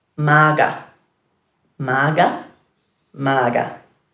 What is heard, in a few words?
"marga", Dhurga